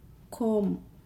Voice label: "comb", Nukuoro; Nukuoro Talking Dictionary

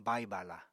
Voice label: "bai-bala", Apatani

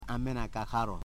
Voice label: "short man", Foe

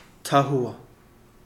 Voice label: roof, Kapingamarangi

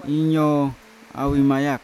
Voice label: injo auimajak casuarina tree, Yawa

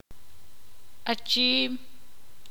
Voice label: ixil; nadar

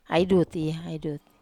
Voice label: "ai duti", wamesa

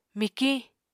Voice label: "mikiñ", Apatani

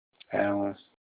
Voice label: mouth, Olukumi

